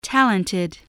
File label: "talented", English